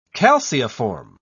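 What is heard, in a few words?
"calceiform", English, British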